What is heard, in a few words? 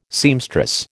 "seamstress", American